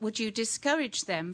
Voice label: woulDZHu, Leicester